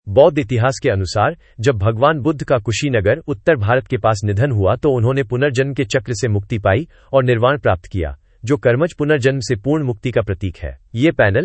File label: Hindi Audio